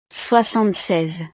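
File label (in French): "soixante seize", French; 76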